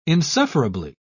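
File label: "insufferably", British English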